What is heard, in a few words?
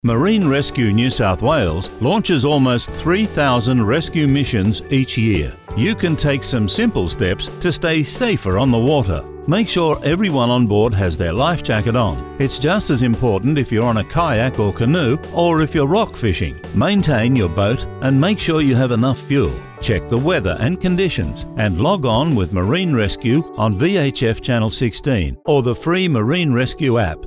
Safety English music